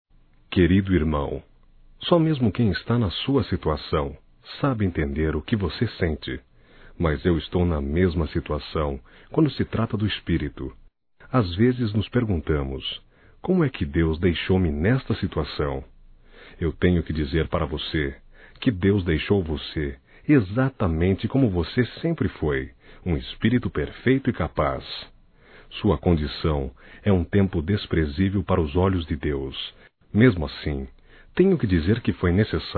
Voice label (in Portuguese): Otimismo Masculino; Telemensagens 2017